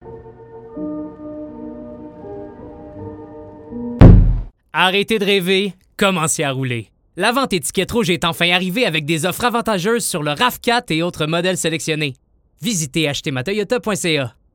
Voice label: fr qc naturel annonceur amical avec sourire energique grave jeune; toyota; adulte

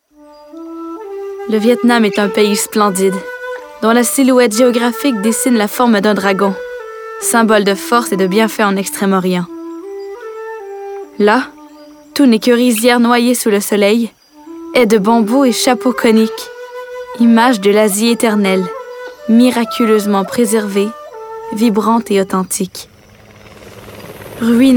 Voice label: narration fr normatif douce rassurante jeune adulte; vietnam

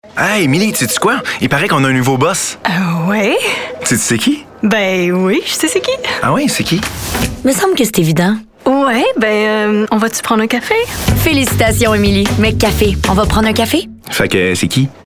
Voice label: personnage fr qc naturel personnage humour comedie adulte; mcdonalds

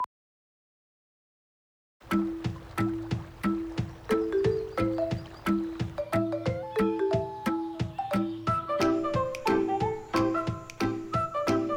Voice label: pub boulangerie annonceur fr normatif sobre pose promo; boulangerie st methode

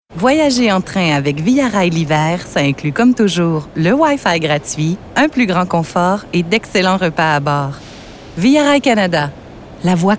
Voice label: pub annonceur fr normatif promo debit ultra rapide grave adulte; via rail

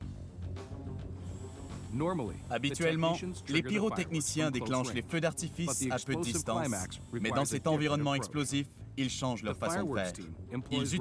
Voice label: montage surrimpression fr normatif jeune adulte

